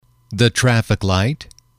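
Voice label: "traffic light", English, male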